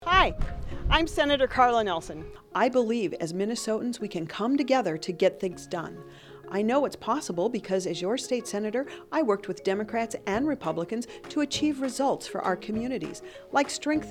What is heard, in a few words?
Radio Ad; Bipartisan